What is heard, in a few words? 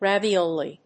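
"ravioli", English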